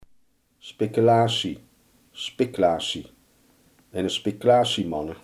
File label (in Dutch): "speculaas", Limburgs